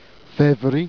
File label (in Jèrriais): "févri", Jèrriais